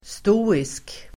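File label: "stoisk", Swedish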